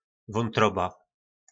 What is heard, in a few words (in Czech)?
"Vóntroba", nářečí Po našimu; Játra